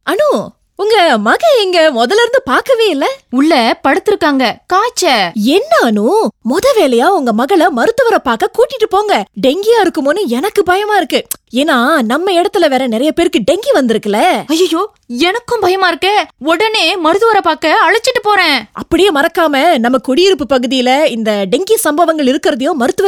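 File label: Tamil; MOH; DENGGI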